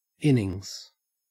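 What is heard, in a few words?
"innings", Australian English; pronunciations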